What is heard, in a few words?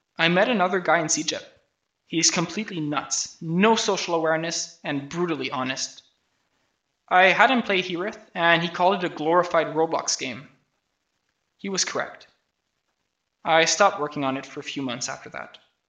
voice, narration